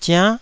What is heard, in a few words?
"ziann9", Taiwanese syllable